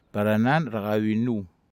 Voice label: "peran an rakawin nuw", netwar

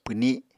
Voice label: "pwni", Apatani

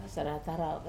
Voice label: "sarata rau" Laportea Decumana, Wamesa

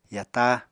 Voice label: "yata", Apatani

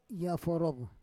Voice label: "yaforov", Roon

